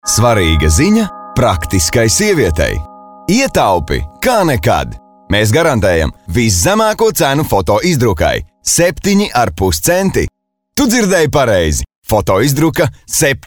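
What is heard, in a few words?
lv, Latvian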